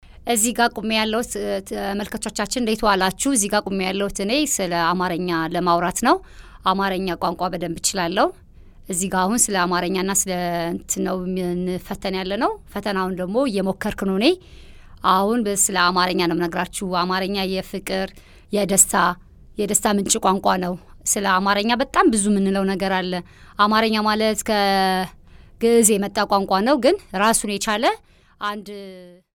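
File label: Amharic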